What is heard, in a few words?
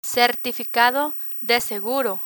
"certificado de seguro", Spanish; picture dictionary; learn spanish